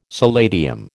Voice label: "solatium", American

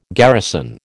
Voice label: "garrison", American

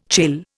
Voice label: "chill", Indian

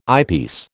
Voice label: "eyepiece", American

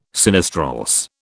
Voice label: "sinistrorse", British

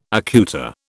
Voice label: "accoutre", British

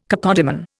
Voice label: "cacodemon", Indian

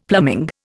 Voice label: "plumbing", Indian; Dictionary

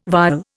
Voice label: "vial", Indian

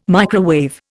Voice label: "microwave", Indian

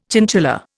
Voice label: "chinchilla", Indian

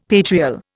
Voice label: "patrial", Indian; Dictionary

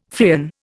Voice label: "Freon", Indian

